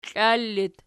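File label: "klal" locative, Archi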